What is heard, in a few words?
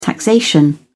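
"taxation", British English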